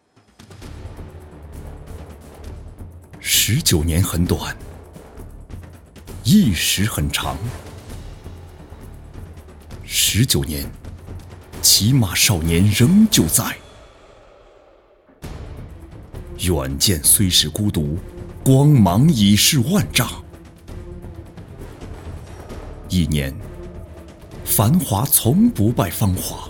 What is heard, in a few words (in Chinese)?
宣传片大气神秘